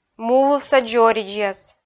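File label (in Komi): "мувывса дзоридзьяс", Komi, female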